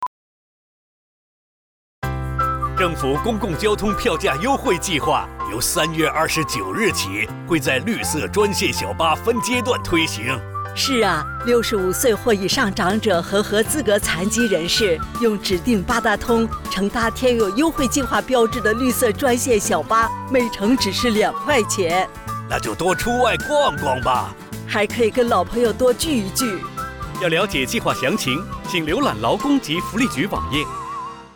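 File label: Mandarin Radio; 分阶段扩展至绿色专线小巴[2015]; 政府长者及合资格残疾人士公共交通票价优惠计划 (二元优惠计划); 普通话广播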